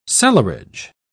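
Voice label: "cellarage", British English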